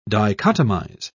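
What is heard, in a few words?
"dichotomize", British English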